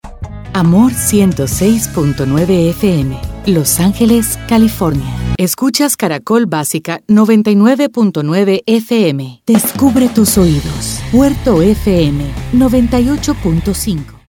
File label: Imaging